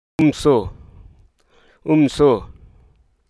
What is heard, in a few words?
hruso, faith